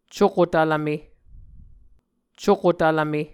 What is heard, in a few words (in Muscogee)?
"cuko tvlvme", Muscogee